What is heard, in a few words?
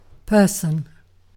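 "person", British English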